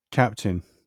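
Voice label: "captain", British English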